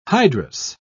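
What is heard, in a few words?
"hydrous", British English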